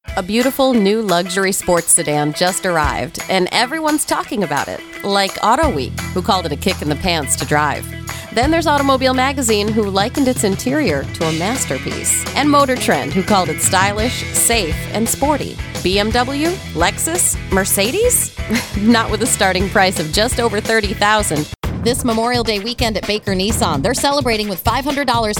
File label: Female 30's-40's; Commercial Auto Demo